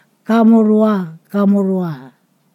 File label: "you two", Ponosakan